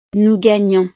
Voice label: "nous gagnons", frances

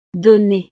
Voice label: "donner", frances

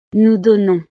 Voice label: "nous donnons", frances

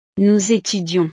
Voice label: "nous etudions", frances

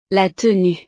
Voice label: "la tenue", frances; ropa

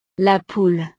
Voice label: "la poule", frances

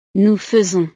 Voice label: "nous faisons", frances

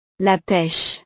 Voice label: "la peche", frances; frutas

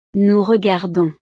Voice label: "nous regardons", frances

